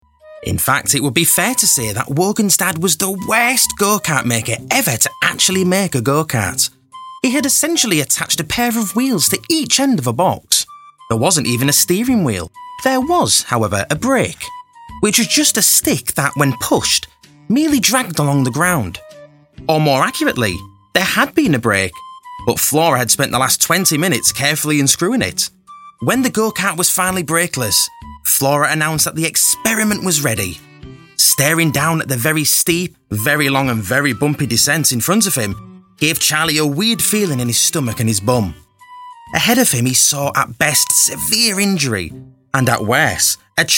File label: yorkshire voiceover audiobook